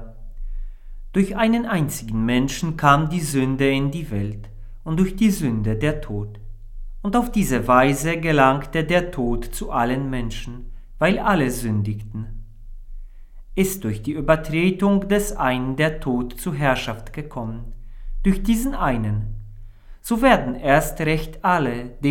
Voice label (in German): Lesung; 1 Fastensonntag A